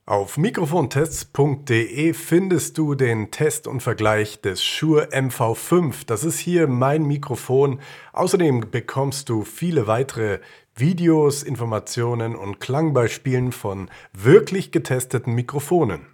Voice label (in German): shure mv5 usb mikrofon test sound